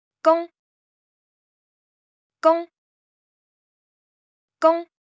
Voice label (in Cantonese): 工, Cantonese; Traditional Chinese